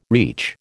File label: "reach", American